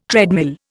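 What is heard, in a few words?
"treadmill", Indian English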